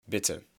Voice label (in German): "Bitte", German